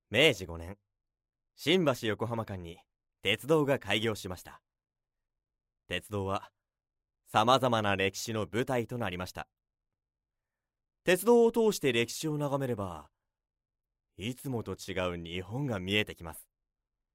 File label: narration